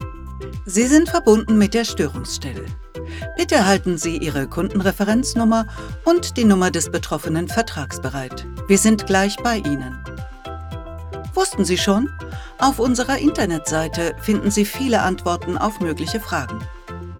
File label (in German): telefonansage storungsstelle